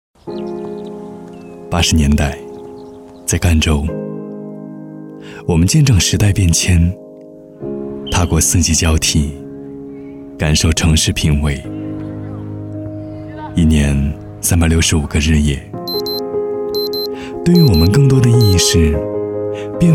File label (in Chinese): 专题走心; 专题走心斑马